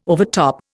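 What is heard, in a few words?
"overtop", Indian English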